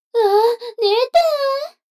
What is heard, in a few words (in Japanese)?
女の子台詞